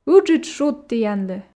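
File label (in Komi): "ыджыд шуд тіянлы", Komi, female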